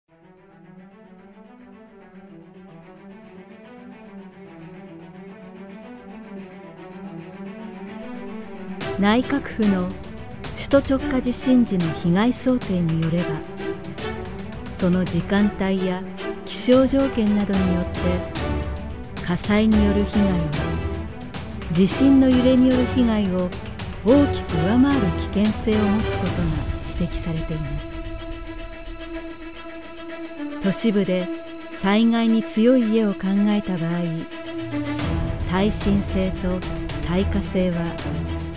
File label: narration